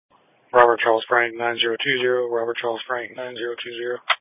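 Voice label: Police Patrol (Dispatch), Audio Call; New Bern Police Patrol 1 (Dispatch) (TG 200)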